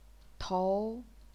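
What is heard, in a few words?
"tou", chinese pinyin, second tone; 投; 骰; 头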